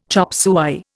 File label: "chop suey", Indian